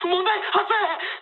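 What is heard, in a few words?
aircraft damaged mood med, speech japanese; War Thunder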